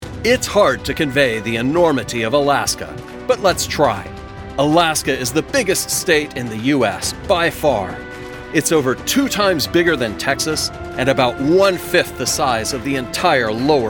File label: Narratives